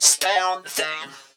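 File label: effects talk, Funky Vocals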